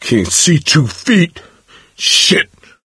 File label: misc directional, coach, survivor voice; source engine; left 4 dead 2